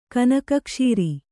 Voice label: "ಕನಕಕ್ಷೀರಿ", Kannada

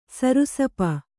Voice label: "ಸರ್ಷಪ", Kannada

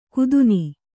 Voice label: "ಕುದುನಿ", Kannada

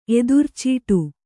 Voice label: "ಎದುರ್ಚೀಟು", Kannada

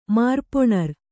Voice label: "ಮಾರ್ಪೊಣರ್", Kannada

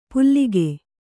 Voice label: "ಪುಲ್ಲಿಕೆ", Kannada

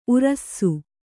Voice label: "ಊರಾಸೆ", kannada

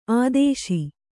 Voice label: "ಆದೇಶ", kannada

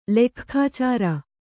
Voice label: "ಲೆಕ್ಖಾಚಾರ", Kannada

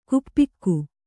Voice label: "ಗೋಪಿಕೆ", Kannada

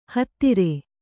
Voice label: "ಹತ್ತರ", Kannada

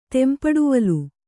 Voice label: "ತೆಂಪಡುವಲು", Kannada

